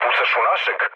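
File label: Serbian player friendly fire mood low; speech; War Thunder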